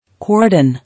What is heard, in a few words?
"Cordon", English